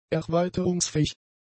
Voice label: "erweiterungsfähig", German; adjectives